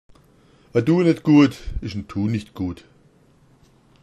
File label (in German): duanetguad, schwaebisch; A' Duanetguad ist ein Tunichtgut